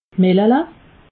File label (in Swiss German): "mellele", alsace